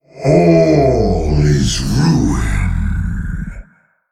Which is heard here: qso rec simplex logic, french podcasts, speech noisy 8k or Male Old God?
Male Old God